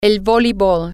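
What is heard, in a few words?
volleyball, Spanish, female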